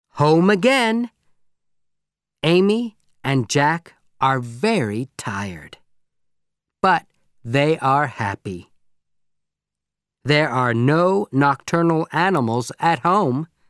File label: Audio American English